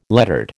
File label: "lettered", American